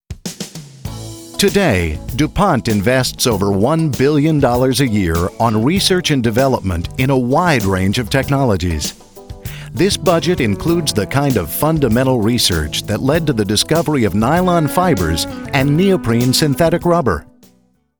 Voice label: RESEARCH/DEVELOPMENT -- Corporate; dupont